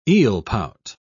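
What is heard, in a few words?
"eelpout", British English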